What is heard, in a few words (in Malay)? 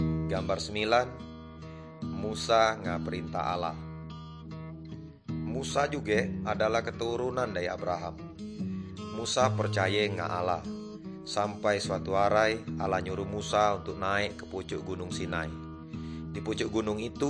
good news melayu tanjung raja